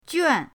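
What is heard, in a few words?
"juan", Mandarin pinyin, fourth tone; pinyindct-open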